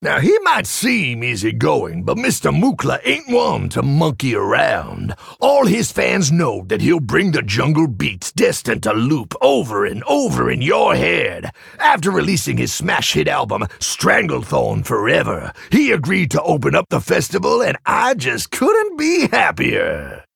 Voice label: Male Tauren Lorebook